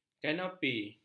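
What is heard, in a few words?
"kanopi", Malay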